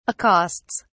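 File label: "accosts", British English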